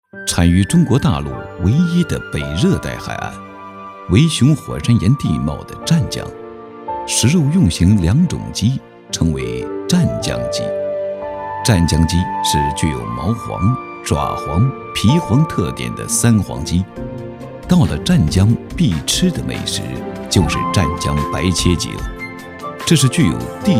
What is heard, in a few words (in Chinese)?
男 美食; 湛江鸡